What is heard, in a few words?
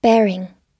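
"bearing", American English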